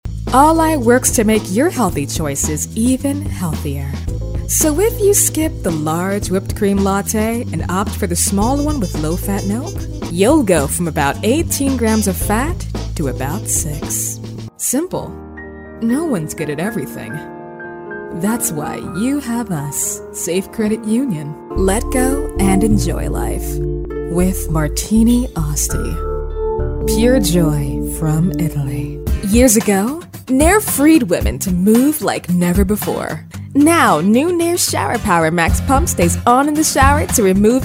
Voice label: Commercial Reel